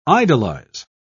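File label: "idolise", English, British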